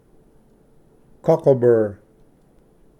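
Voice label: "cocklebur", English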